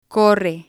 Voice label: "corre", Spanish